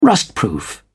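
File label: "rustproof", British English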